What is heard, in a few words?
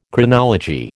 "Chronology", American